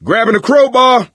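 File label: melee weapons, voice, male; Left 4 Dead 2; coach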